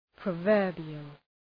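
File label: "proverbial", English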